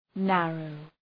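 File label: "narrow", English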